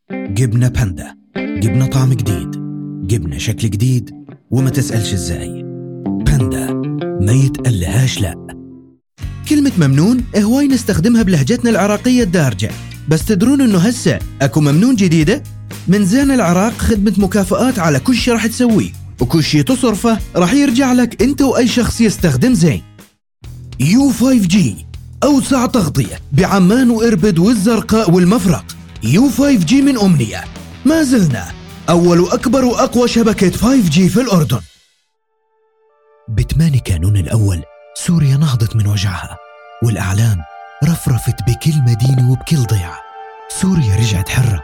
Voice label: accent